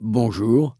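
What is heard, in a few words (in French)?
"bonjour", French, dwarf, female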